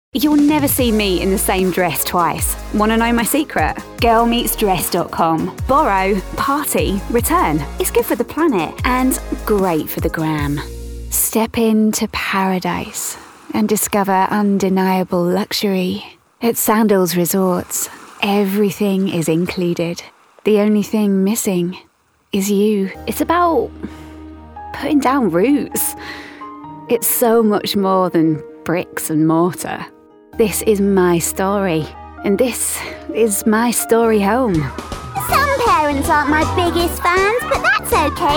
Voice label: Commercial Showreel